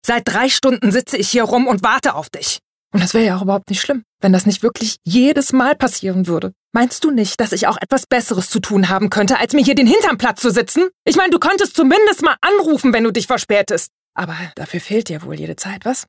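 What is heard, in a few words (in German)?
verärgert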